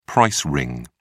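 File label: "price ring", British English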